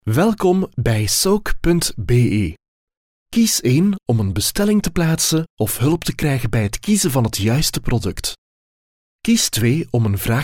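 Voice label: flemish interactive voice response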